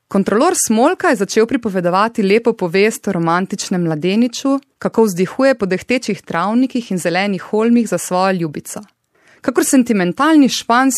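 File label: slovenian female narration; voice demos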